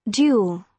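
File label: "dual", British English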